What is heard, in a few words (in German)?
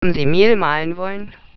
Resynthese "Mehl"